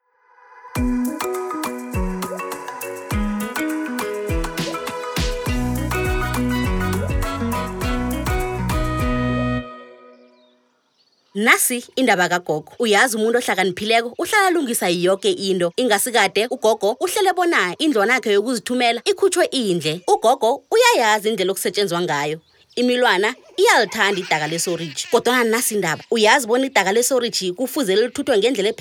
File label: Users Disposal Ndebele; Sanitation